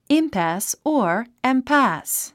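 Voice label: "impasse", English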